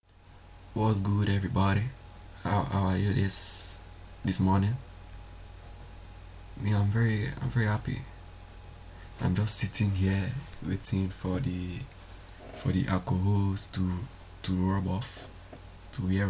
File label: Nigerian accent